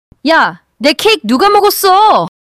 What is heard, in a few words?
anger